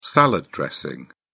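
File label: "salad dressing", British English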